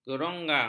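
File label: "geronggang", Malay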